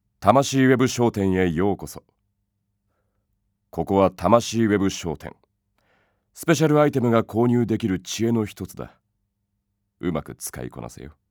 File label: audio message